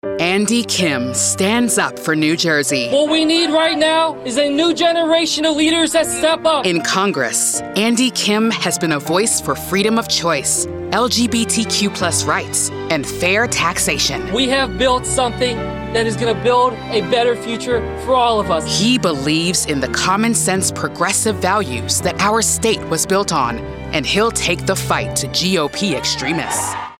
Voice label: Political Positive Image Candidate Campaign Celebratory; Andy Kim